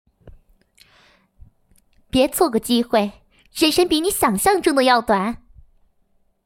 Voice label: 积极活泼

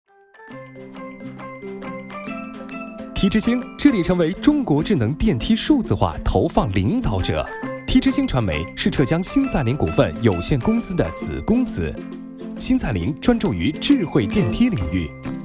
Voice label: 动画电梯投放广告

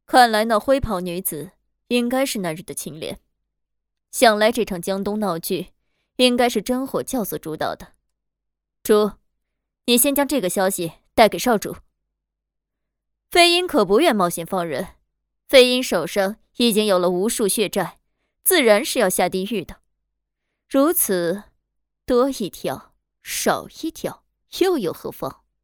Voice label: 御姐冷静

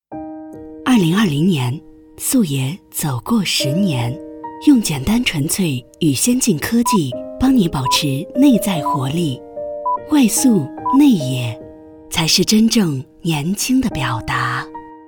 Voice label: 走心化妆品广告

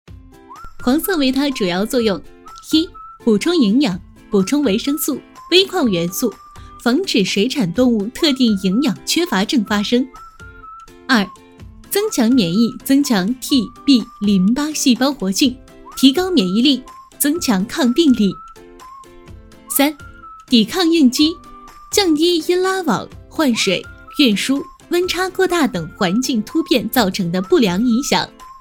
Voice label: 科普产品介绍成熟女

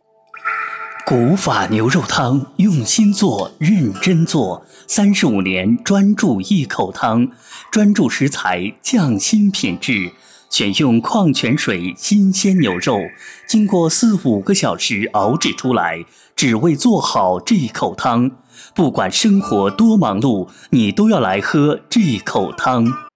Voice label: 牛肉汤加音乐广告配音; 古法

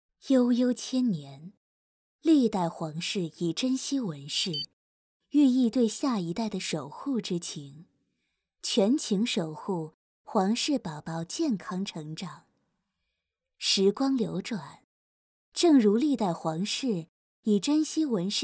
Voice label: 温柔 知性 奶粉

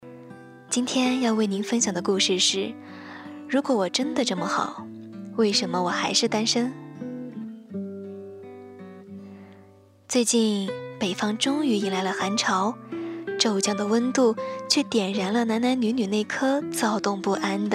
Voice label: 电台故事; 子不语

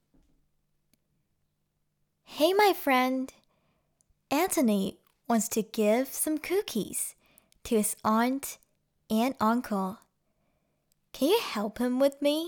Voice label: 成人女声纯英文

